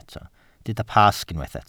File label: "Pasg", Welsh